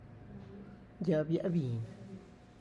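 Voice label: tlacochahuaya, Zapotec; cactus offset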